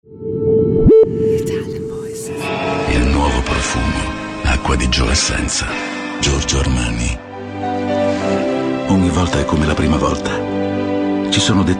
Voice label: ITALIAN VOICES Intense Dreamy

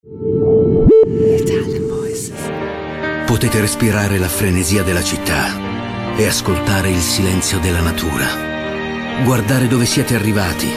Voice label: ITALIAN VOICES Depth Intense

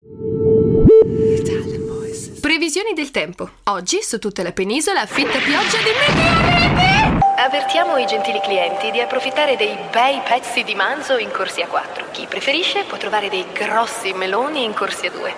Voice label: Next Door Girls Smart, ITALIANVOICES

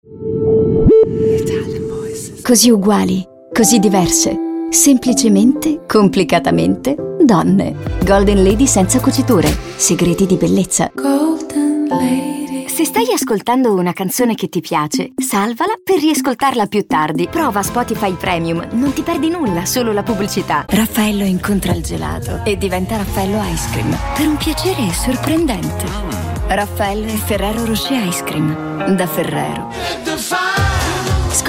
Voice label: ITALIAN VOICES Smooth Commercials

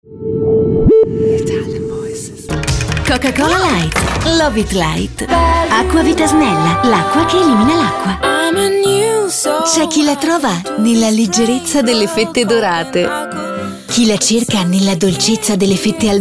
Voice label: ITALIAN VOICES Bright Nice tones

